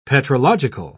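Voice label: "petrological", English, British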